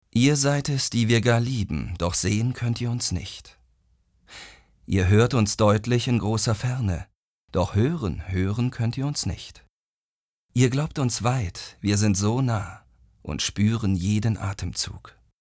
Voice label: poetisch, maenner

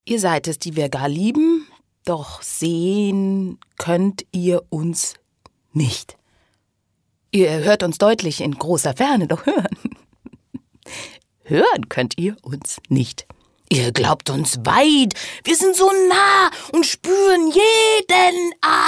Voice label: psycho, frauen

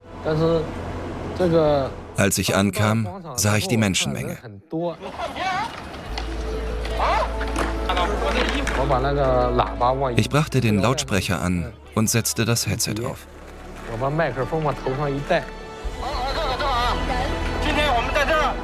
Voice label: Voice Over, maenner; China der entfesselte Riese

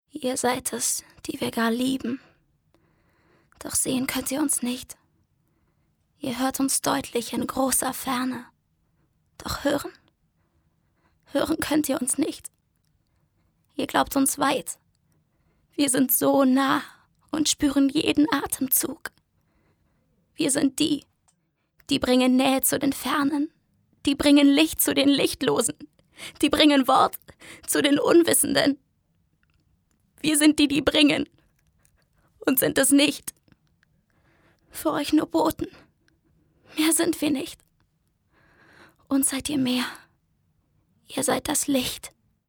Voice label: traurig

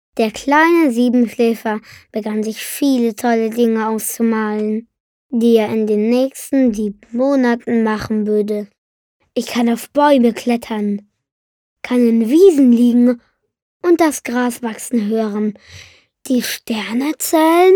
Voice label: Jungen; Der Siebenschläfer

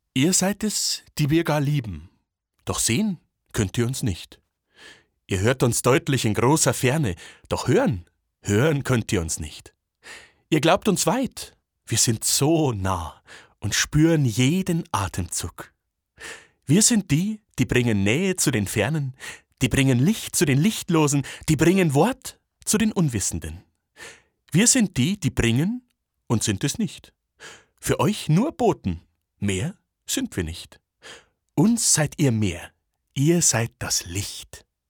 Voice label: muenchner Akzent, maenner

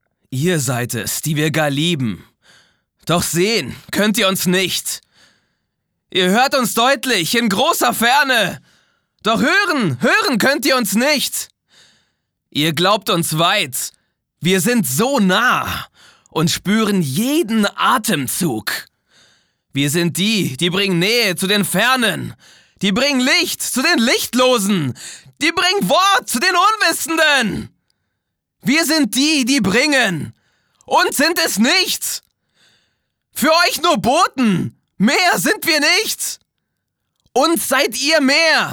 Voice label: aggressiv, maenner

